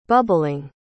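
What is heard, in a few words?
"bubbling", English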